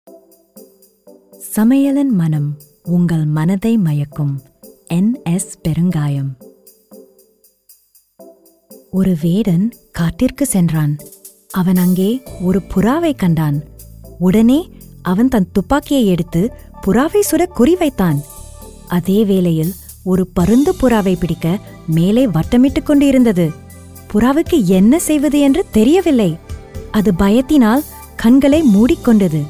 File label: Tamil, Female; India